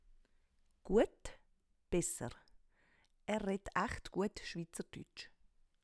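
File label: "guet", Swiss German; Wortschatz Kapitel 0; Hauptwortschatz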